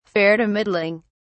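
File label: "fair to middling", British English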